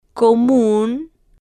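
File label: "comun", Spanish, slow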